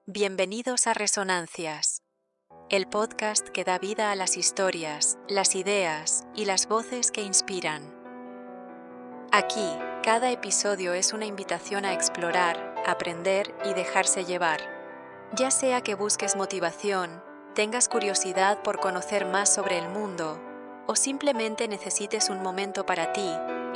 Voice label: spanish podcasts